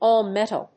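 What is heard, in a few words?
"all metal", English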